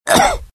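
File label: death, dialogue generic Italian; mohaa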